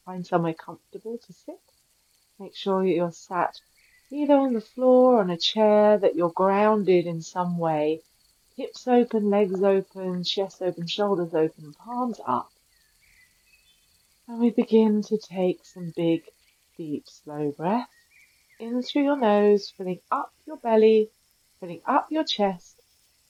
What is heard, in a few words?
Meditation